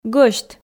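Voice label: "гӧсьт", Komi, female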